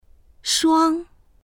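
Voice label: "shuang1", Mandarin Chinese; 双; （ペアを数える）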